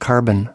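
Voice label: "carbon", US English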